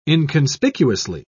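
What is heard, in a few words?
"inconspicuously", British English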